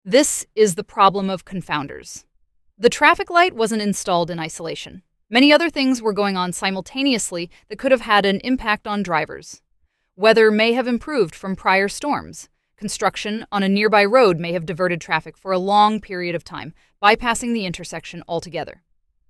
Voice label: narration; Bayesian Explainer; bayesian vs frequentist research